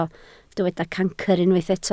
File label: "cancr", Welsh